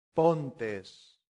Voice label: "pontes", latin; santillana